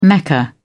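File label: "mecca", British English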